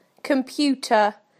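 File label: "computer", British English; PictureDictionary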